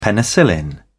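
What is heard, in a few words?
"penicillin", English, British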